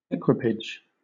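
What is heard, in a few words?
"equipage", British English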